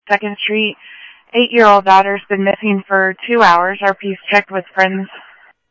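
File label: Simulcast police department, scanner audio trunk; Mesa Simulcast; MESA PD 3 Red Mt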